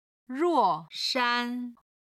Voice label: "ruo4 shan1", Chinese

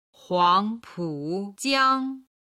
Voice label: "huangpujiang", Chinese

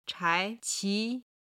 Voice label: "chai2 qi2", Chinese

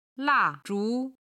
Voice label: "la4 zhu2", Chinese

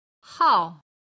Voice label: "hao4", Chinese

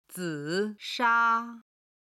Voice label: "zi3sha1", chinese

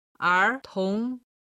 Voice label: "ertong", chinese

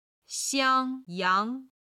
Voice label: "xiang1yang2", chinese

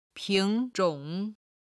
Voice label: "ping2 zhong3", Chinese

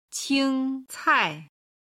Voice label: "qingcai", Chinese

